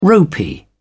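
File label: "ropy", British English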